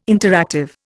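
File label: "interactive", Indian English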